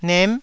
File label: "nem9", taiwanese